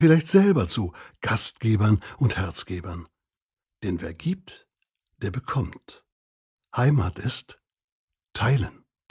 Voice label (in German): Sprechtext